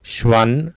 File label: "shwan", Sanskrit